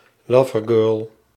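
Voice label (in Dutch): "lovergirl", Dutch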